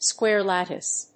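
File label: "square lattice", English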